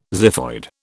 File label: "xiphoid", British